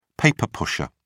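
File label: "paper-pusher", British English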